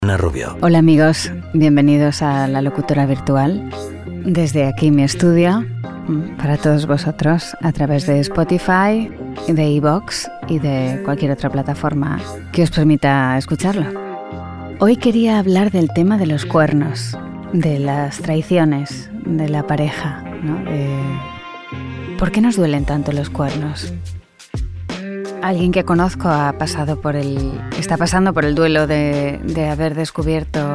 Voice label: PODCAST ACTING DUBBING

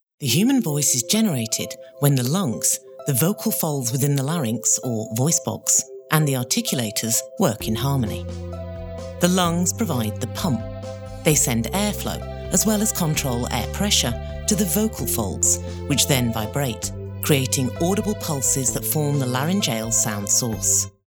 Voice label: Medical laryngeal

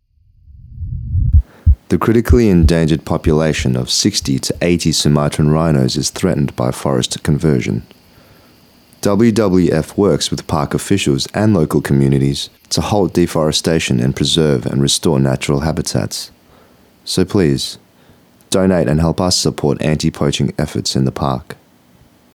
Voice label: Documentary Voiceover

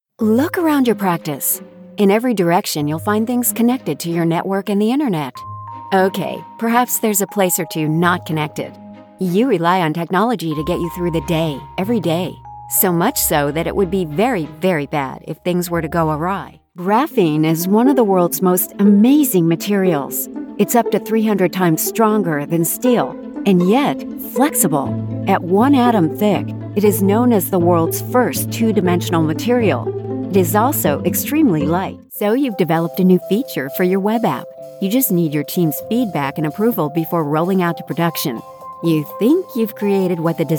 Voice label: Explainer

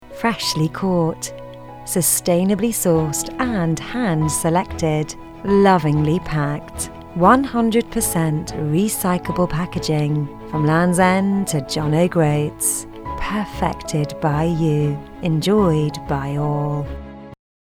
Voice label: radio

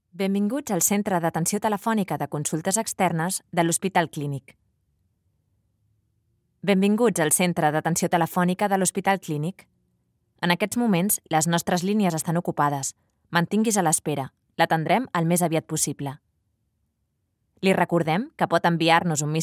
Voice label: CALL CENTER

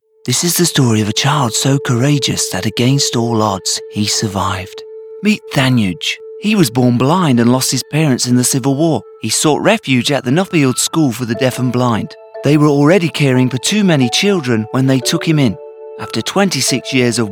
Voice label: Charity video voiceover

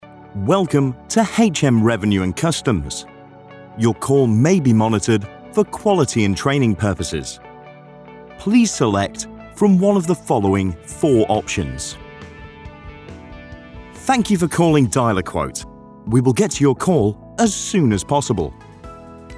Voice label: telephony

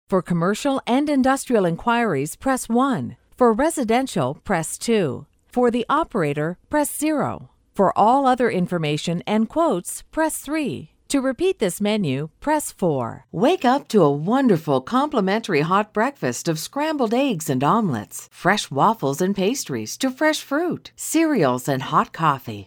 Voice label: phone prompts

